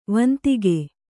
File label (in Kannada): "ವಂದಕ", Kannada